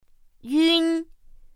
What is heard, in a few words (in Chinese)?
"yūn", pinyin; pinyin2; pinyinji